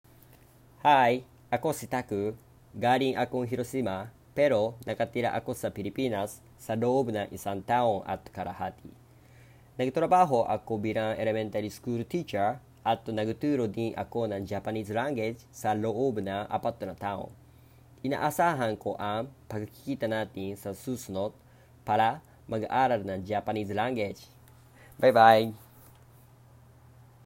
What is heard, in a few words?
tagalog